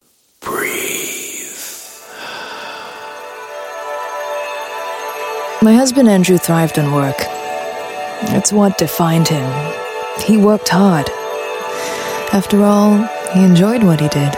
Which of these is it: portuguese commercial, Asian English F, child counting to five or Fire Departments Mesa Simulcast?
Asian English F